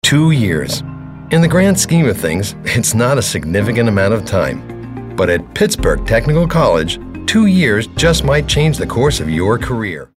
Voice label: conversational